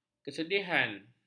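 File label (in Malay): "kesedihan", Malay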